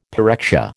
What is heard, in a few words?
"pyrexia", American